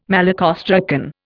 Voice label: "malacostracan", Indian

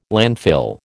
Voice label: "landfill", American

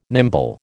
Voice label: "nimble", American

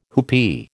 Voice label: "whoopee", American

